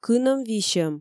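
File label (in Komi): "кынӧм висьӧм", Komi, female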